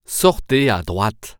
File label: Exit Right, french; waze